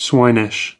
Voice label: "swinish", us